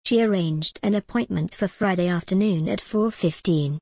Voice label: en gb she arranged an appointment for friday afternoon at four fifteen